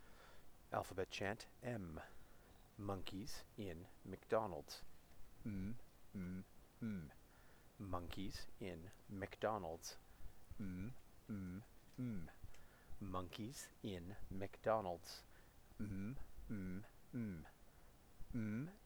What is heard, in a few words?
alphabet chant 'm' slow